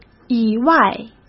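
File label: "以外", Mandarin Chinese; hsk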